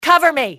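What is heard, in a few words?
"cover me", english, female; XBOX; enemy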